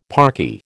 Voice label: "parky", American

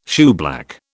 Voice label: "shoeblack", British

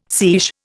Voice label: "seiche", Indian

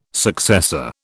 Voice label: "successor", British

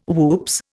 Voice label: "whoops", Indian

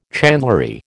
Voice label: "chandlery", American

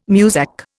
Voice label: "Muzak", Indian

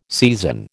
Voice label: "seisin", American

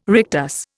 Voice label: "rectus", Indian

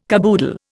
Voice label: "caboodle", Indian

